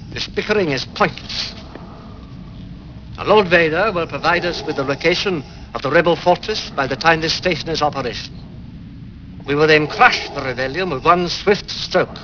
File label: bickering